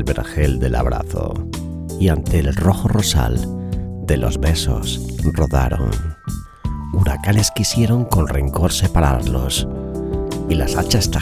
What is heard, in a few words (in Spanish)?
vals enamorados poema romantico; Vals de los enamorados; Miguel Hernández. Poema romántico